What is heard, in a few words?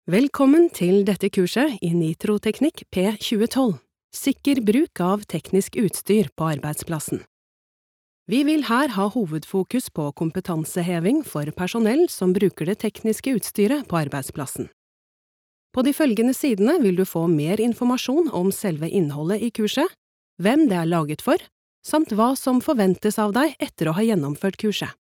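Norwegian elearning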